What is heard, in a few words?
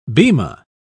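"bema", British English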